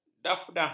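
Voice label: "dafnah", Malay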